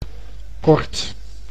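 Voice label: "kort", Dutch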